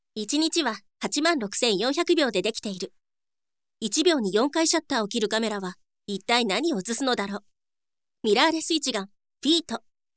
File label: woman nar